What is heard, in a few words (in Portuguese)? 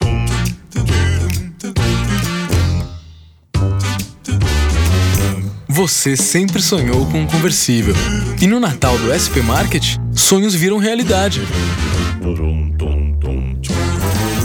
Locução Simpática; SP Market Natal